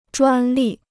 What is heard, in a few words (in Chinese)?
"专利", Chinese